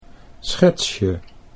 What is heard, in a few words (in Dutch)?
"schetsje", Dutch